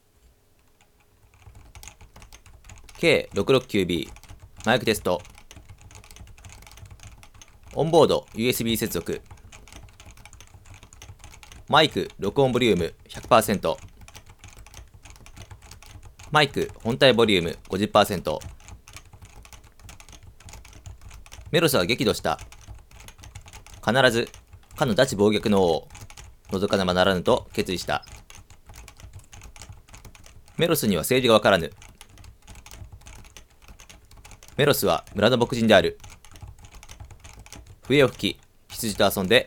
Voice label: mic test